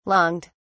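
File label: "longed", British English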